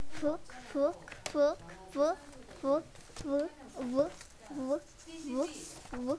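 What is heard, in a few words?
"book", spoken word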